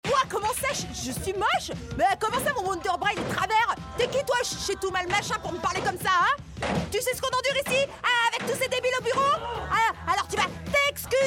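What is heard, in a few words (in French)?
Comedie, comédienne voix off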